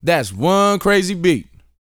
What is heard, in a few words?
BOAST MF; Vocal Planet; R&B Dance